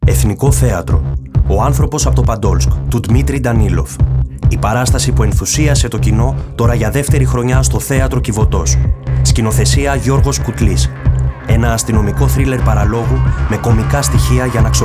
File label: RADIO SPOT; KIVOTOS